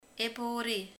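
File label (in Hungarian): "meny", Hungarian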